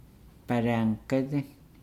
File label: "blanket", Nukuoro